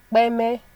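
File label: "kpeme", Nigerian Pidgin, female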